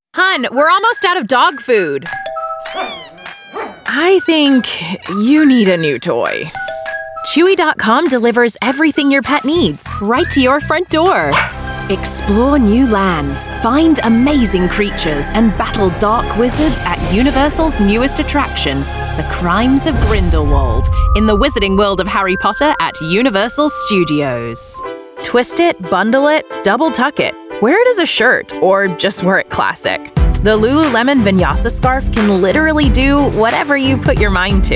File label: women commercial